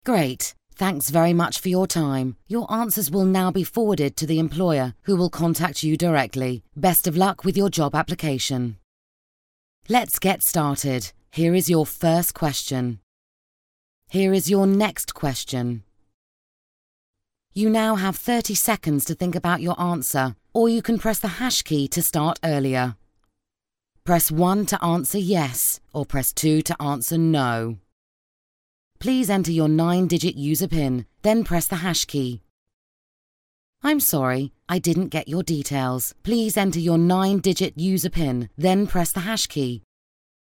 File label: ivr questionaire